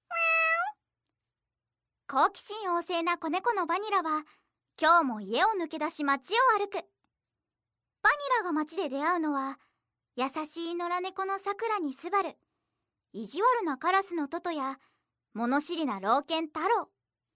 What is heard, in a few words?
narration